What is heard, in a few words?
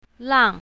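"lang4", Chinese pinyin, south, female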